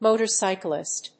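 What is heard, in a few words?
"motorcyclist", English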